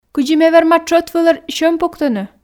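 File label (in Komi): кыдзи ме верма тшӧт вылӧ сьӧм пуктыны, Komi, female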